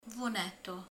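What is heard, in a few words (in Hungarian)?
"kék", Hungarian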